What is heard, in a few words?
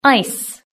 "ice", british english